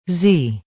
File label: "Zee" Female, Female voice, alphabet, speaking; (human voice); Talking; Voice Box